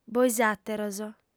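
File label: "boisàteros", female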